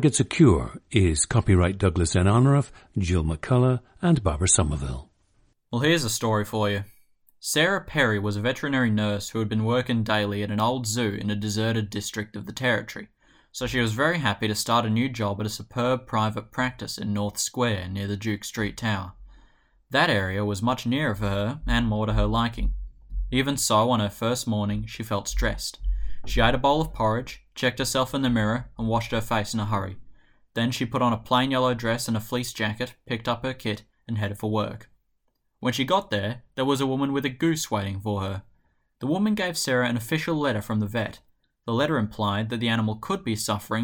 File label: australia